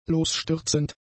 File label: "losstürzend", German; adjectives